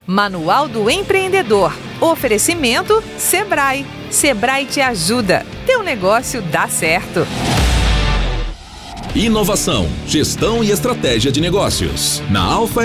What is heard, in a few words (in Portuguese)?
BOLETIM; SEBRAE; MANUAL STARTUP; INVENTA VIAGENS ALTERNATIVAS